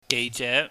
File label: "geije", Cantonese